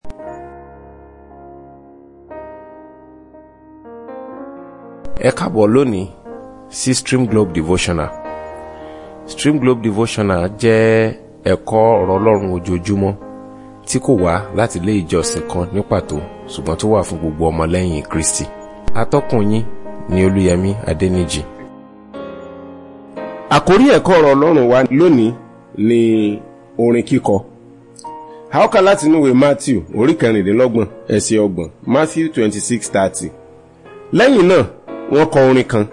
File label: Devotional Audio Yoruba